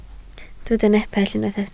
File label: "nepell", Welsh